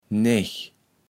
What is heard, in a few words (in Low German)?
"Nech", Platt